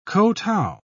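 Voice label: "kotow", British English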